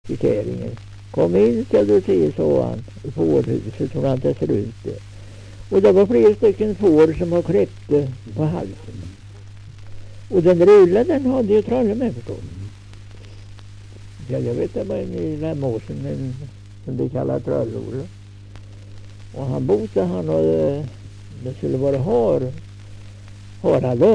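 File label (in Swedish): Nysund Närke, dialekter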